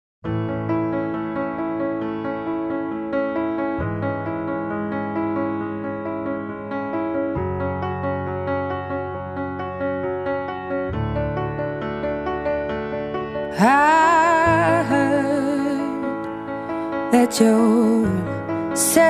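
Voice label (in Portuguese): telemensagem, female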